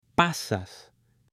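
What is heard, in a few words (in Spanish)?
"PASAS", Spanish; ALIMENTOS; AppLenguaje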